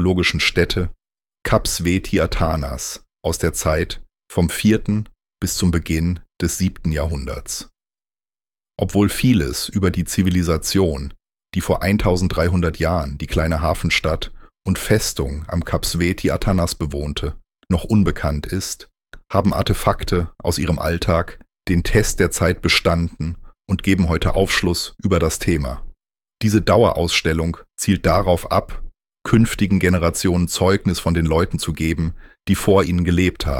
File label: Museum German; museums audioguide